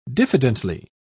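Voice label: "diffidently", en, British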